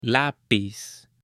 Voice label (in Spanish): "lapiz", H; JUGUETES; AppLenguaje